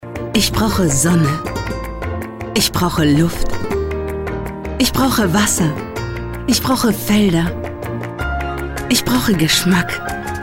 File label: female german canarias comercial